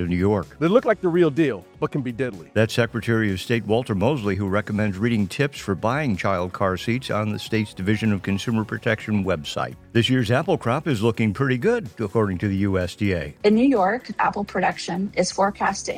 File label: News